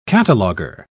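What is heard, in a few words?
"cataloger", British English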